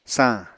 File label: "sañ", Apatani